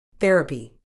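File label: "therapy", British English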